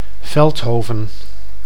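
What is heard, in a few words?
"Veldhoven", Dutch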